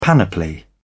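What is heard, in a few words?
"panoply", British English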